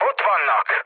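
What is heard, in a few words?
enemy detected, mood low, Hungarian; War Thunder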